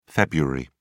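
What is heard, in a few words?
"feb", British English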